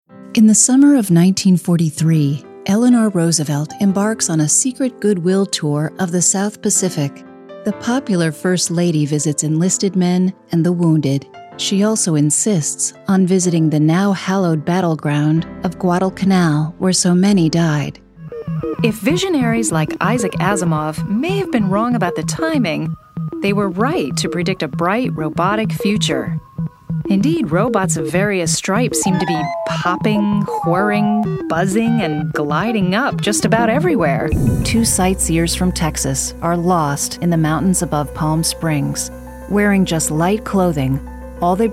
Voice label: NARRATION AND DOCUMENTARY